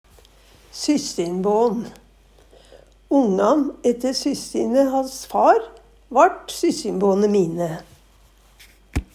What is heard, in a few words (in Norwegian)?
syssinbån, Numedalsmål